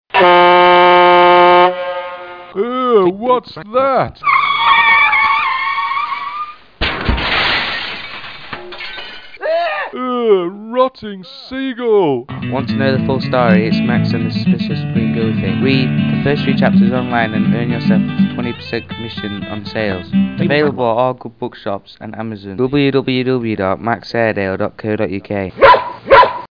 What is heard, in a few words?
advert